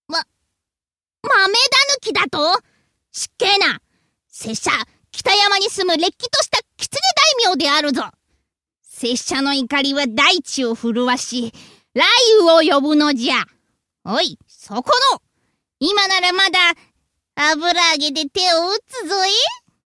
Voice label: ningenigai f doubutu kitune